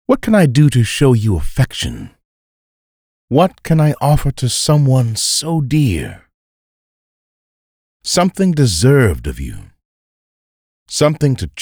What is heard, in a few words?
female